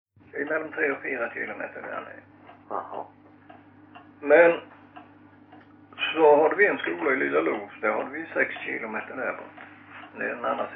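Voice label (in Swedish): Loshult Skåne, dialekter; Folkminnen; Svenska sagor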